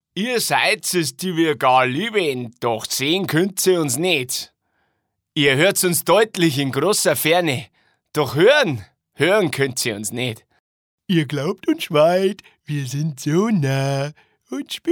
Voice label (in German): Dialekte, maenner; Sprecher